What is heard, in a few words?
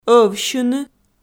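"ӧвсьыны", Komi-Zyrian, female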